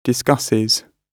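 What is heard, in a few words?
"discusses", British English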